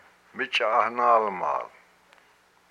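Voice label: "its diaphragm", Hupa, male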